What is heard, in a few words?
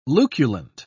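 "luculent", British English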